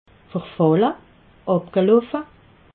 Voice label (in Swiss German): "verfalle", alsace